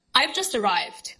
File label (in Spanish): Voice Acting es